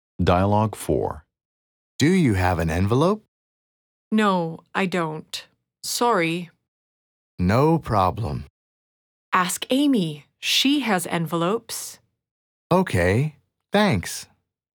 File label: Dialogue slow